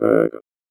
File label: VOICEFX ELCTR 140; Techno2